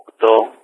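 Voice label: "8", spoken; DCS; Ka-50; Wingman 2; Digits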